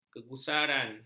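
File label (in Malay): "kegusaran", Malay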